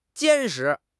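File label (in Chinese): "坚实", Mandarin Chinese; HSK6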